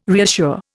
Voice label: "reassure", Indian